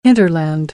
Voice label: "hinterland", American; AmericanBritish